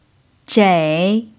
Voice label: "zhei3", Chinese pinyin